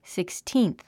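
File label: "sixteenth", English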